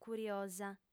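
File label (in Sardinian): "curiosa", female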